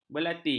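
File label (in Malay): "belati", Malay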